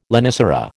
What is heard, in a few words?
"lonicera", American